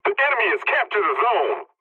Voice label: pilot capture zone enemy, english; War Thunder